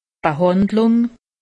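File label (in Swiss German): "behandlung", Alsatian; medecine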